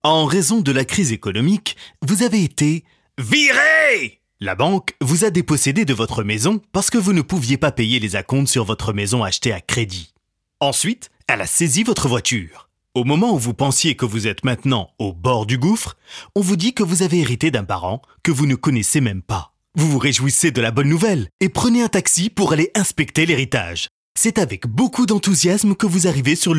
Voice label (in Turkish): Fransizca Erkek